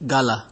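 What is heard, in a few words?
"gala", Nigerian Pidgin, male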